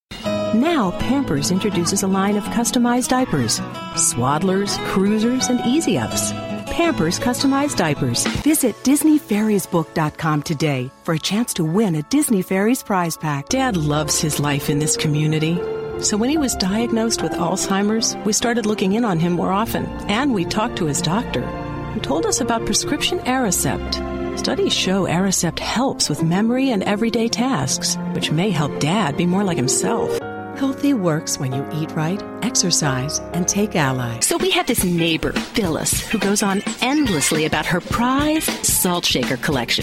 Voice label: mom